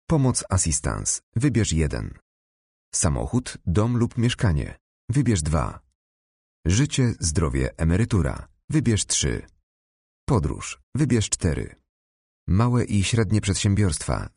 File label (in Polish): IVR PL EN reklama